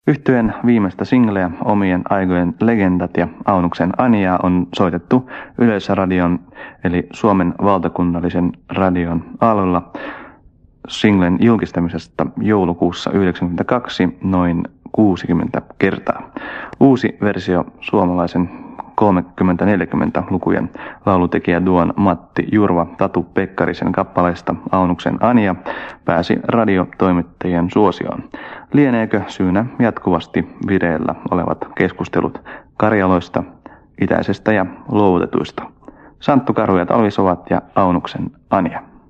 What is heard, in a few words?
announcement